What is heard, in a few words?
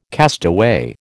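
"castaway", American English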